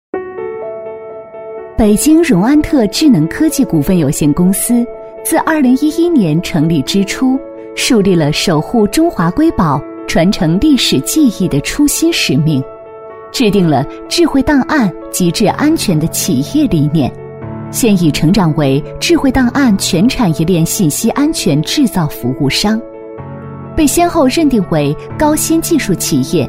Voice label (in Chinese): 女, 公司宣传, 智能科技, 稳重平和; 年轻稳重; 女21-大气知性; 北京融安特智能科技